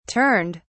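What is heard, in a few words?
"turned", British English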